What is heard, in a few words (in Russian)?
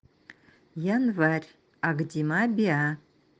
январь, нанайский